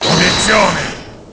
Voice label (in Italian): "Obiezione", Italian